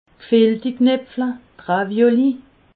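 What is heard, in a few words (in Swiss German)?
"ravioli", Alsatian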